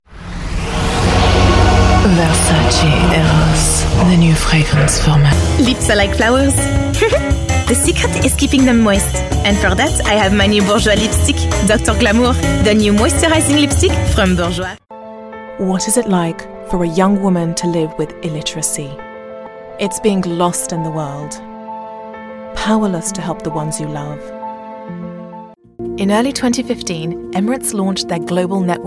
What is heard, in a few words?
English